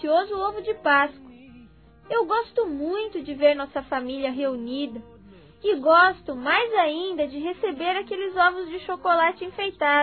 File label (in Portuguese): FELIZ PASCOA PAPAI (VOZ DE CRIANÇA), Telemensagens